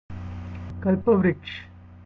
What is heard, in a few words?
Banyan, hindi